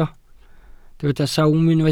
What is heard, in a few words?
"sawm", welsh